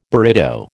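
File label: "burrito", American English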